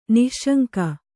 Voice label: "ನಿಃಶಂಕ", Kannada; nih śaŋka

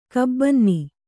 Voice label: "ಕಾಬನ್ನಿ", Kannada

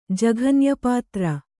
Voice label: "ಜಘನ್ಯಪಾತ್ರ", Kannada

